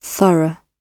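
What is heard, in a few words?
"thorough", British English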